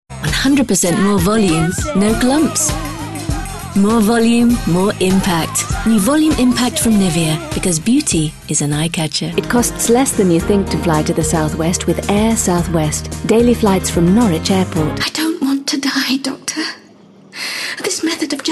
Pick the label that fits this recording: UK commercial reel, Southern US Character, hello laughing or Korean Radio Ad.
UK commercial reel